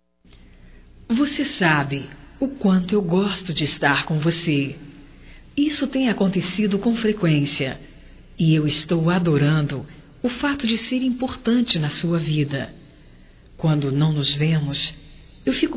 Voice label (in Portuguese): DECLARAÇAO DISFARÇADA, Românticas, fem